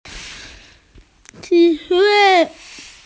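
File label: "hedgehog", Mandarin; ci4wei5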